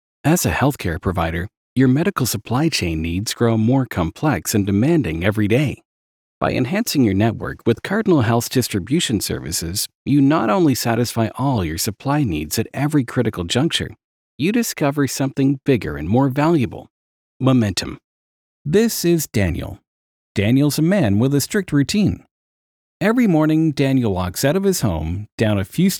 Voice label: Explainer Dry

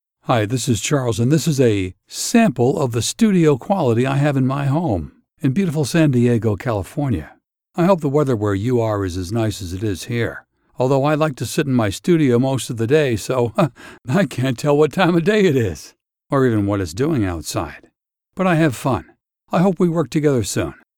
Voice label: Studio Sample

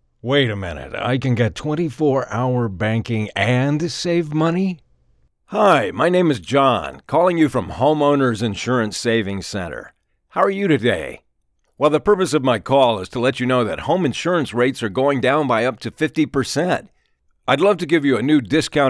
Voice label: Conversational

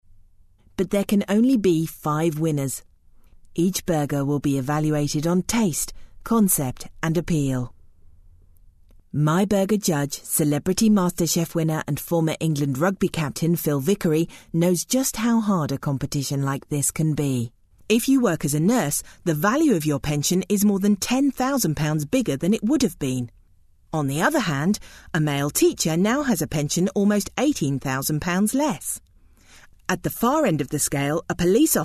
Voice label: Web Explainer videos

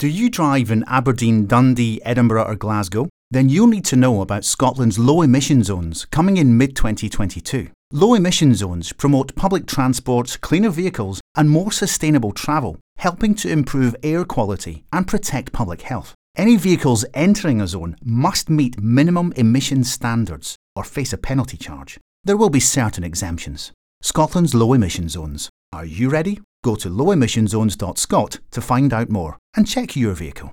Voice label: Low Emissions TV Ad

